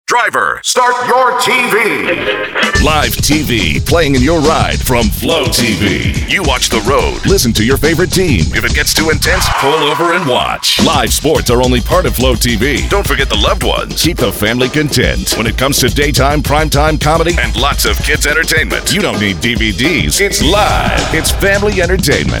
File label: Car Concepts Radio Ad